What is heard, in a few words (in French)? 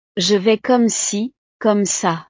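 "je vais comme ci comme ca", French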